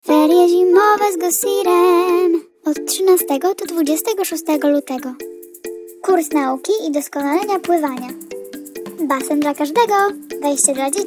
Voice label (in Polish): reklama Ferie Zimowe